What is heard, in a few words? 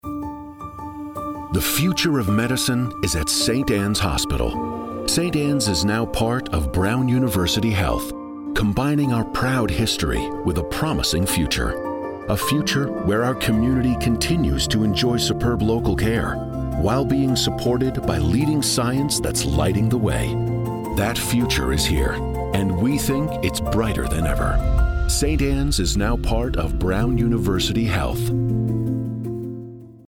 ENGLISH radio spot; 30 sec; Saint Annes Phase 1